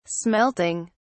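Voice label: "smelting", British English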